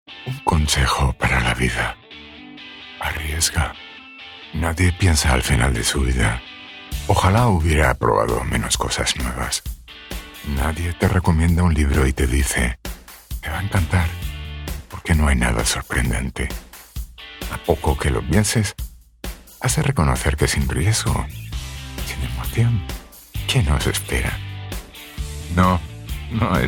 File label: spanish promos